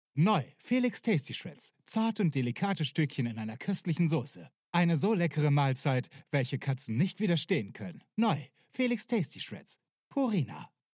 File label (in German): Werbung